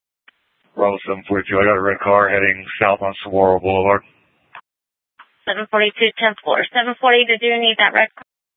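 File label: Sheriff, Parks, Sheriff radio scanner; Maricopa County (P25) - Thompson Peak; Shrf Mnt (Parks)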